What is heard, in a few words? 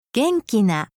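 "genkina", Japanese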